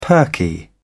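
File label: "perky", British English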